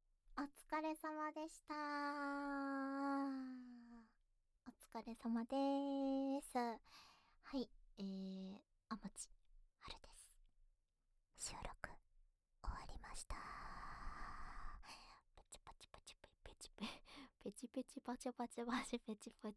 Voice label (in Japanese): フリートーク; SPフリートーク